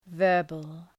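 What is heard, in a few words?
"verbal", English word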